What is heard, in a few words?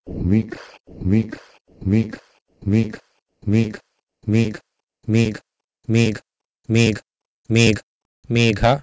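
Proto-Indo-European h3migh to Sanskrit megha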